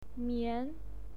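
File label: "mian" second tone, pinyin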